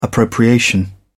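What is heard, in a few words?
"appropriation", British English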